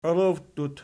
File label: "olowttut", Archi